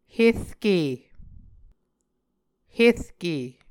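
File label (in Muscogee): "herke", Muscogee word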